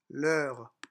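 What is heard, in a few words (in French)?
"leur", French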